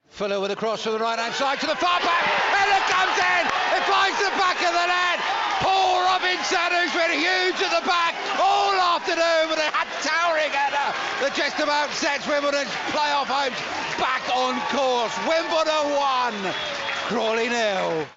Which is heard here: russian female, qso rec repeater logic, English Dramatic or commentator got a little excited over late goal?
commentator got a little excited over late goal